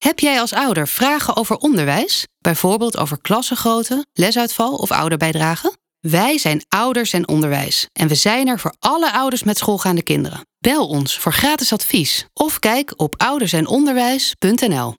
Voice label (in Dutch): Algemene radiospot